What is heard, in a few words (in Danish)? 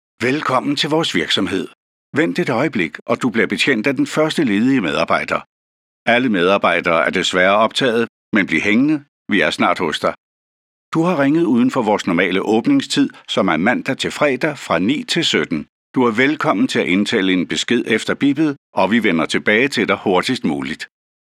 Mand formel, danske professionelle indtalinger